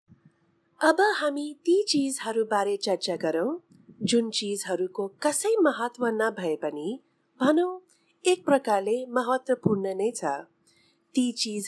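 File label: nepalese female voice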